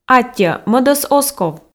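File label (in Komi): аттьӧ мӧдӧс оз ков, Komi, female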